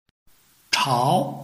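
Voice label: "chao", Chinese, tone 2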